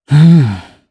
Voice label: Think, jp; Shakmeh; kings raid